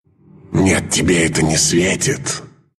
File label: deny, ru; dota2